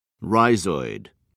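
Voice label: "rhizoid", British English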